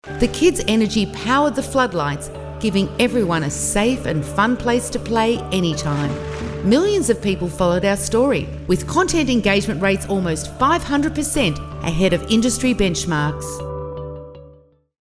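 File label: corporate narration less bright EQ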